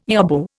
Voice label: "yobbo", Indian